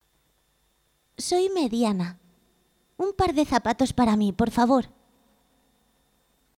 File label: KID VOICE, SPANISH FEMALE